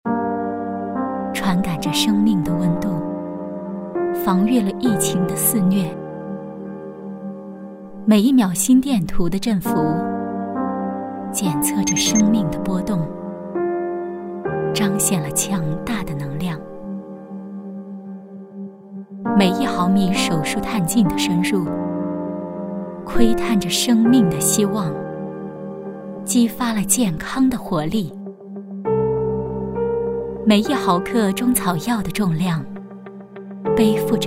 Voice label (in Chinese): 女 旁白; 精准计量守护健康